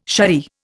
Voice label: "saree", Indian